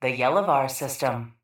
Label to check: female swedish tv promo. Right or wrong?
Wrong